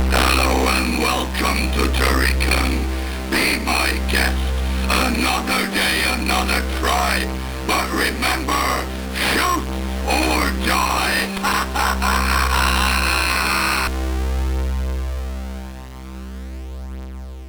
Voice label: intro speech, MOS6581R2; sid; Turrican